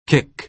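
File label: "kick", American English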